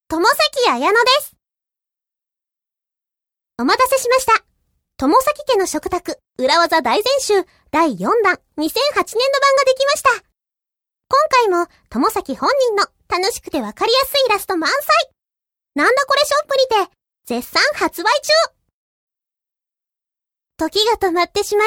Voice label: narration